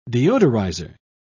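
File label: "deodorizer", British English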